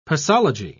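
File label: "posology", British English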